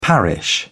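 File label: "parish", British English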